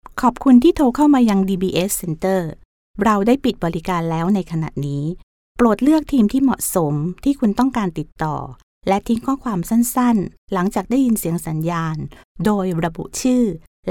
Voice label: Thai Female Clean VO